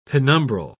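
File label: "penumbral", British English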